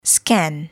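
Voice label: "scan", English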